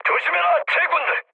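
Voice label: Korean near waypoint mood high, speech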